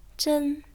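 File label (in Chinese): "zhen1", Chinese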